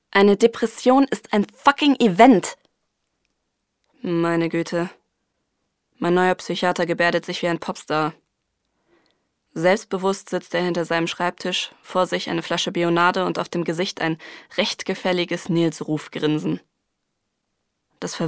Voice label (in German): hörbuch deutsch; LOFT VOICES